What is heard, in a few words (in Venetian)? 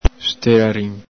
"stelarin", Veneto; steƚarìn; Regulus ignicapillus; zoo.; el Galepin